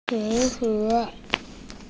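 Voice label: "ci2yu3" Mandarin Chinese, female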